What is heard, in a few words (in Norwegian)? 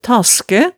"taskæ", hemsedalsmaalet tale